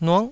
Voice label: "nuk9", taiwanese